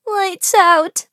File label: hit, en